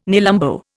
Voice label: "nelumbo", Indian